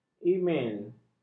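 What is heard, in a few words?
"e-mel", Malay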